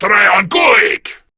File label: Attack, Male